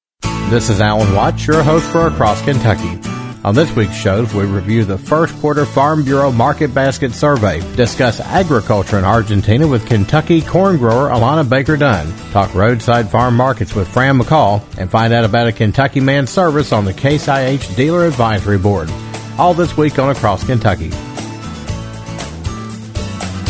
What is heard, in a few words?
promo; Across Kentucky